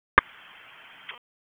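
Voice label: Sheriff's Office Dispatch; WSO DISP 1; wake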